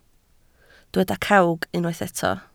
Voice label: "cawg", Welsh

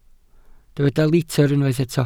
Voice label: "litr", Welsh

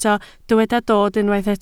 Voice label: "dod", Welsh

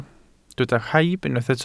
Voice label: "rhaib", welsh

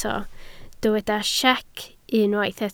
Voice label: "siec", Welsh

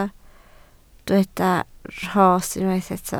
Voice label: "rhos", Welsh

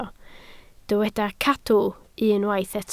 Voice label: "cadw", Welsh

